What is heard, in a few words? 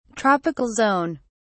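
"tropical zone", British English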